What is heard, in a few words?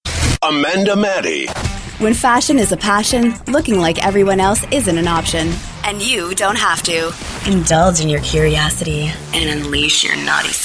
Commercial